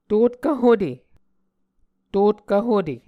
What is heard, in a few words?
"totkv hute", Muscogee